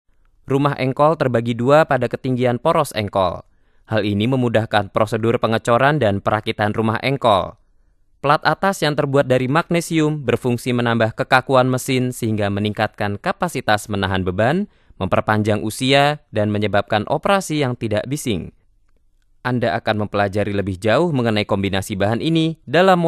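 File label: Indonesian male voice over; MVO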